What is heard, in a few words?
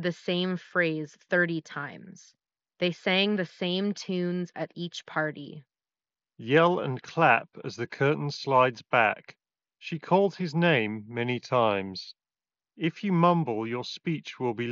Razer Barracuda Pro Ideal microphone sample